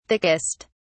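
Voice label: "thickest", British English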